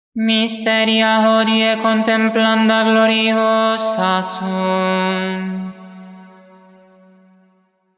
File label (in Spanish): Anuncio de los misterios gloriosos; santo rosario